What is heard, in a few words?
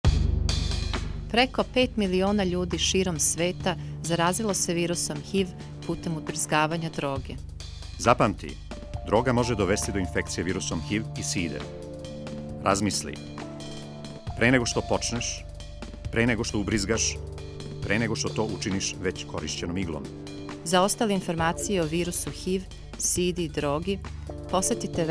spot serbian music